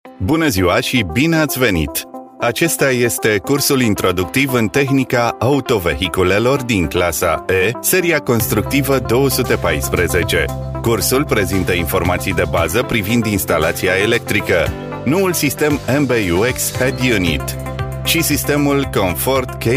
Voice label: romanian voice elearning

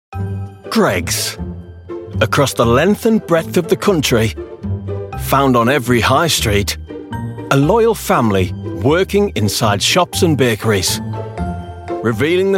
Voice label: documentary

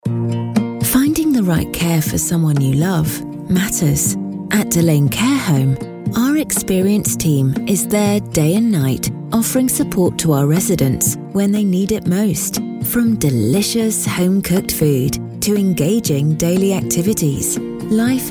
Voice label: kind caring read - commercials

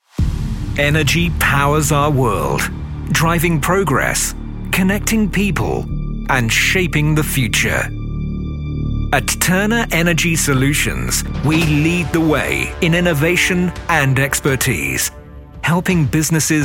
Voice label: corporate

